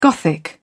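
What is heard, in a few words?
"gothic", English, British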